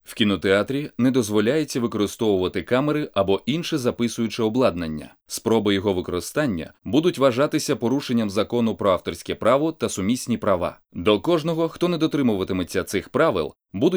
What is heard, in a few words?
Narrator